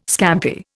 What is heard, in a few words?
"scampi", Indian